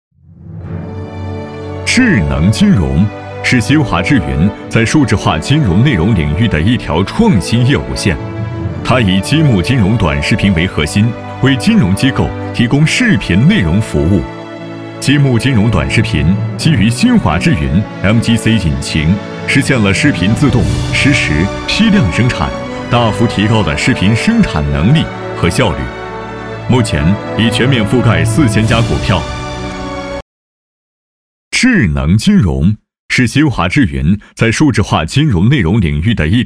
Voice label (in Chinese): B男 宣传片 智能金融